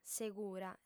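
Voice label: "segura", Sardinian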